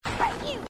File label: hurt you, grunts